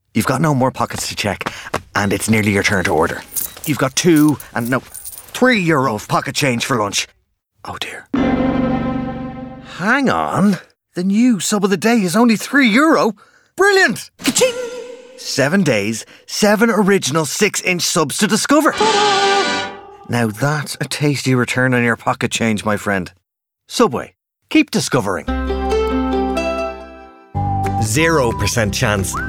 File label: Voiceover Animation; New York